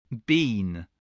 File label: "bean", English